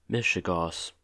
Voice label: "mishegoss", en-us